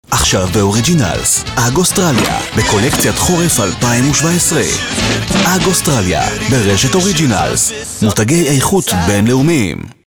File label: hebrew tv shows